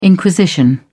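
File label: "inquisition", British English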